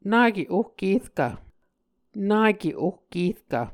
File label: "nake ohkerkv", Muscogee